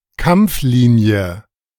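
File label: "Kampflinie" German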